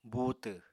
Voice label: "buutw", Apatani

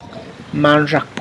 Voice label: "cockroach", tlacochahuaya

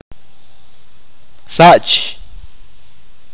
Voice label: "what", achi

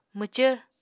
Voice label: "mwcvr", Apatani

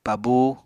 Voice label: "pabú", Apatani

